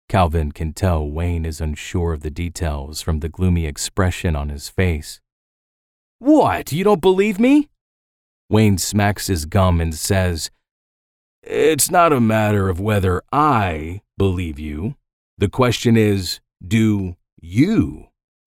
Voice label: Fiction Male Male Dialogue